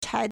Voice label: "chai2", Chinese